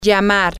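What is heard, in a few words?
to call, spanish, female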